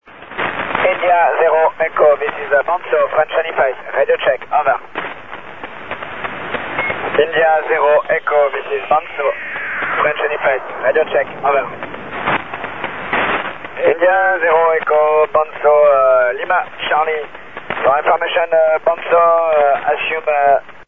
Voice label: french